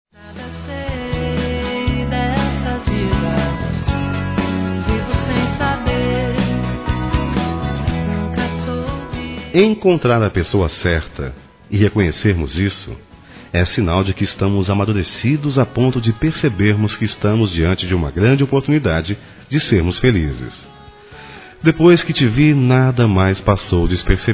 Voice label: Româtica Suave Masculino, Telemensagens; Românticas

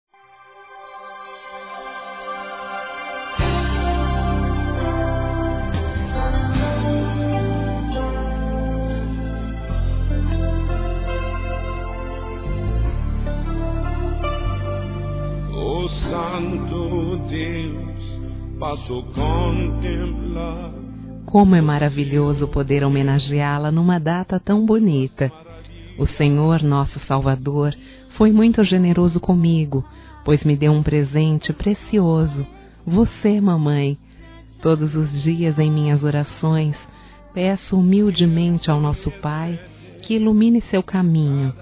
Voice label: MÃE EVANGÉLICO F, Telemensagens, Dia das Mães; Telemensagens 2017

